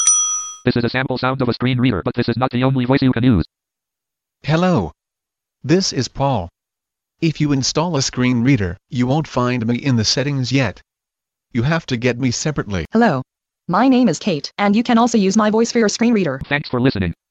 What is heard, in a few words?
screen reader voices